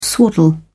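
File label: "swaddle", British English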